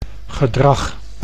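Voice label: "gedrag", Dutch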